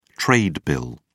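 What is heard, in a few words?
"trade bill", British English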